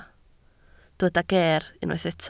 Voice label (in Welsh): "geer" Welsh